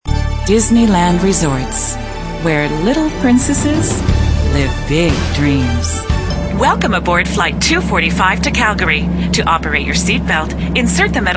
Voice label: female, late20s late30s clear